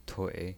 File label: "tui3", pinyin